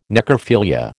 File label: "necrophilia", American